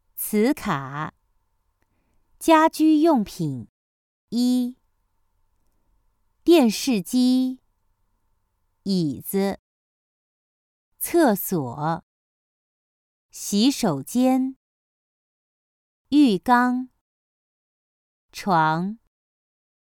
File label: Vocabulary Flashcards Household Item; Chinese Treasure Chest Volume 3